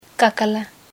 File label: shout, Ho